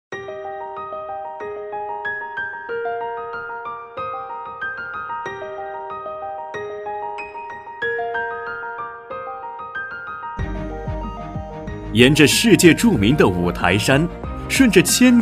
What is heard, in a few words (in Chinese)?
男 宣传片 欢快; 平遥古城